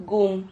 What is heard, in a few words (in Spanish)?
"gum", Silabas